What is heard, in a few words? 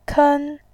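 "ken1", Mandarin Chinese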